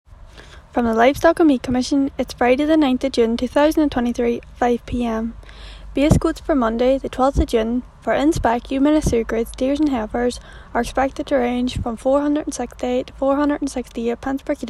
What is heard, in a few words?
Answerphone